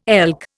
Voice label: "elk", Indian